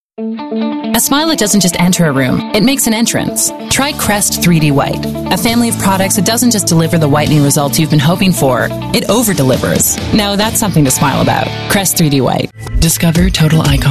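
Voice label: US Commercial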